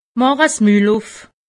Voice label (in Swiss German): "mull", alsace; medecine